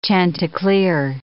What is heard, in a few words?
"chanticleer", English